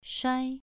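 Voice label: "shuai", Mandarin Chinese syllable, second tone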